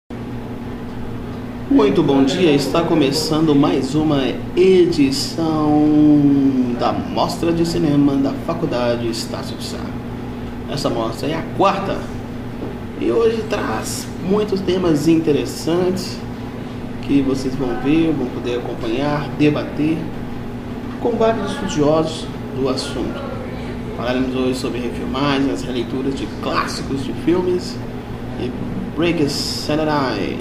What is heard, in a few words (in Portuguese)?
narracao